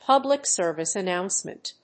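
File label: "public-service announcement", English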